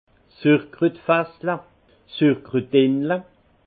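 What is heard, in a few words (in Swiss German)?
"surkrutfassel", Alsace Haut Rhin; vin; fût à choucroute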